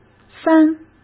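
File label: "三", Mandarin Chinese; chinese-flashcards; hsk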